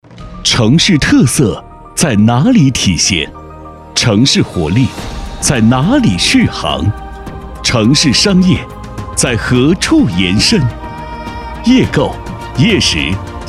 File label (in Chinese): 男-夜经济